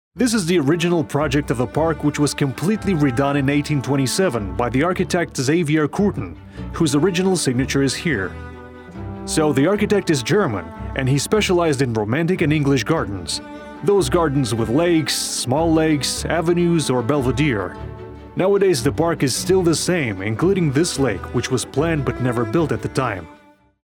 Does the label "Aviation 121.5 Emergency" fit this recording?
No